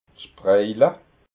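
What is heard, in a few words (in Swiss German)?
"spraila", Alsatian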